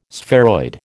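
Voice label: "spheroid", American English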